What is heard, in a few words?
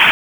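Police Special Operations Tactical, P25; Raleigh; Spec Ops Tac 12; wake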